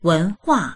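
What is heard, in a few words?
"wen2hua4", Mandarin Chinese; 文化; wénhuà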